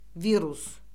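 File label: "virus", Azerbaijani; Orfoqrafiya Lüğəti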